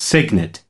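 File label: "cygnet", US English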